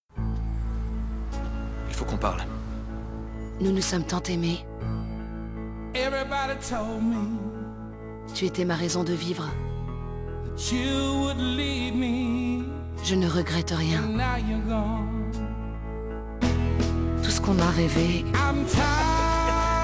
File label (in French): fin Bande Annonce; FoxLife